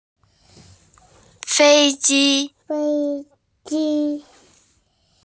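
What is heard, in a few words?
"fei ji", Mandarin Chinese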